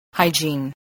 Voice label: "hygiene", voice spelling

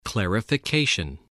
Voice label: "clarification", English, voice

